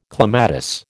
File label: "clematis", American